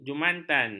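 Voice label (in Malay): "jumantan", Malay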